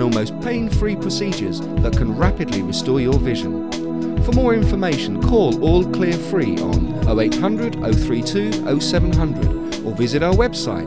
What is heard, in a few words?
laser eye clinics radio ad